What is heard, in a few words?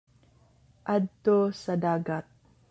Cebuano